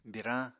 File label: "birañ", Apatani